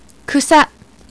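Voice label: "grass", English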